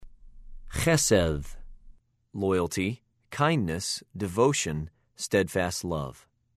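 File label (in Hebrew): "חֶ֫סֶד", Hebrew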